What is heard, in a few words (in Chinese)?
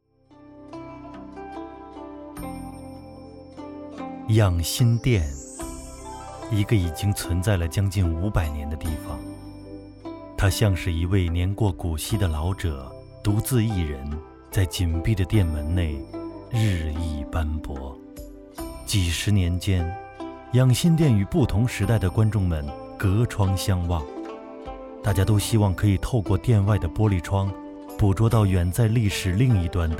故宫解说